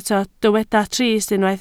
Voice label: "trist", Welsh